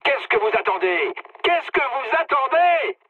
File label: attack position mood med, speech fr; War Thunder